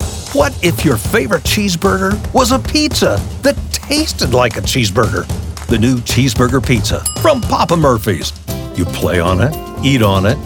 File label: Commercial